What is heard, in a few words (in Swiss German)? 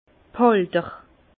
"halter", Bas Rhin; vin; contenants